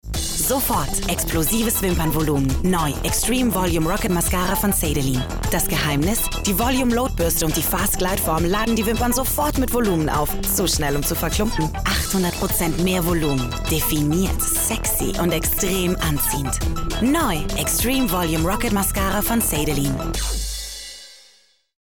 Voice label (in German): werbung mascara; LOFT VOICES